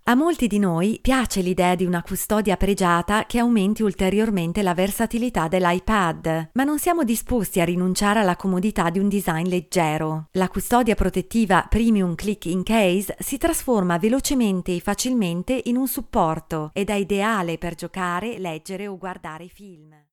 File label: F Italian E-Learning